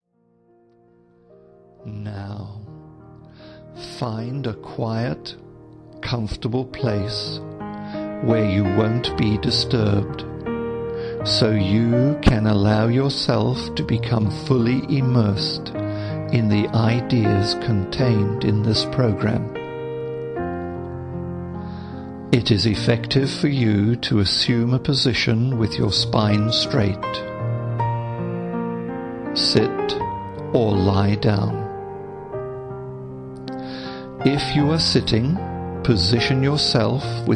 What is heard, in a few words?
hypno instruction